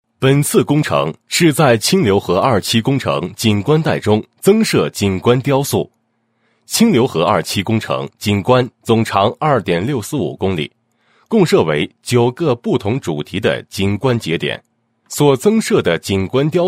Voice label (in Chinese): 男, 项目介绍; 清流河二期项目